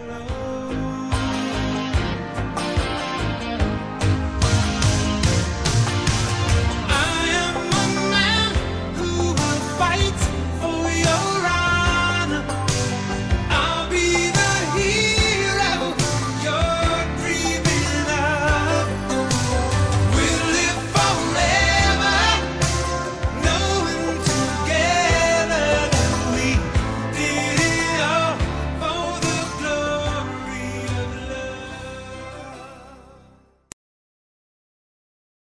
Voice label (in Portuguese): ROM VOCE E O AMOR DA MINHA VIDA MASC, Telemensagens